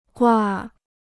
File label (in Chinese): "挂", Chinese